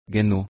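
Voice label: "genu", Proto-Indo-European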